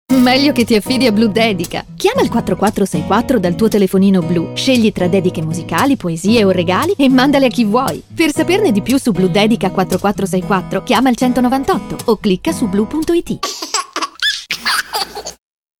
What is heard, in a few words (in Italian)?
Voce istituzionale giovane; Bludedica